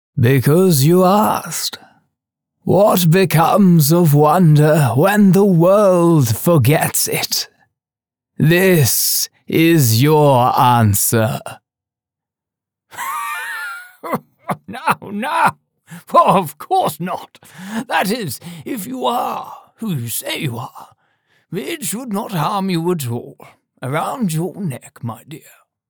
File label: Old Man UK Character Samples